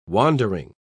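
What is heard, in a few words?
"wandering", English, British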